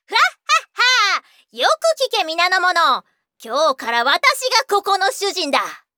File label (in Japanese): syounen